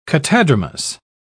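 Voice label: "catadromous", British English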